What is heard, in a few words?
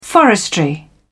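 "forestry", British English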